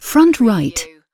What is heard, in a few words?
UK female front right; surround; lynne publishing